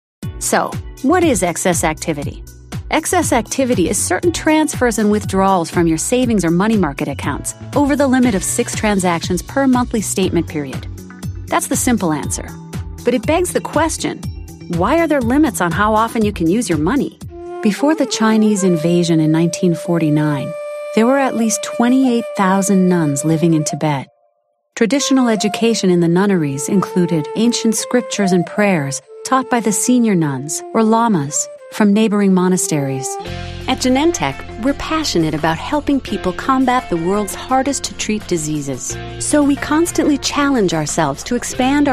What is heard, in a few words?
Industrial Narration